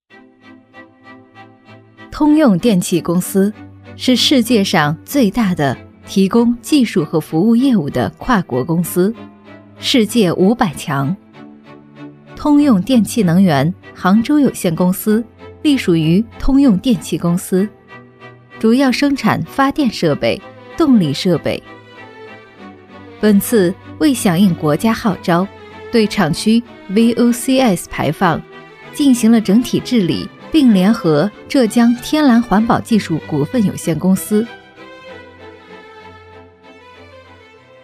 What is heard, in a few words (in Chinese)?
女 专题